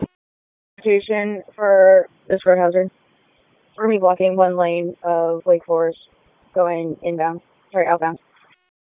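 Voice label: Police Northeast District Dispatch; Raleigh; wake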